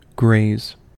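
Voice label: "graze", US English; pronunciations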